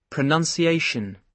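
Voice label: "pronunciation", British English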